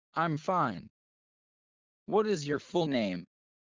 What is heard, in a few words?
low; studying abroad